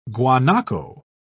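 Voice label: "guanaco", British English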